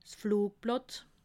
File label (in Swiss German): "flugblatt", alsace